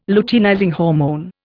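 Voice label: "luteinizing hormone", Indian English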